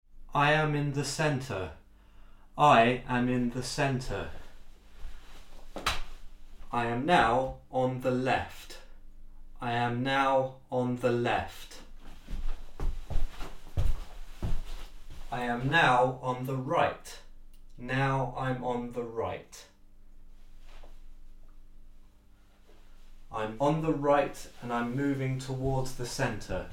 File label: Voice in a Room - Blumlein